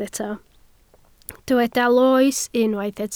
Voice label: "loes", Welsh